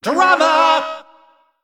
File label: Male Mech Attack